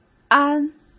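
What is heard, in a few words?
"安", Mandarin Chinese; chinese flashcards; hsk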